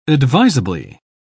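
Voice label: "advisably", British English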